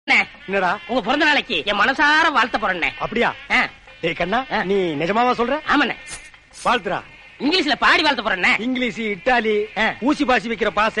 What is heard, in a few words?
Birthday Funny Wishes ! Tamil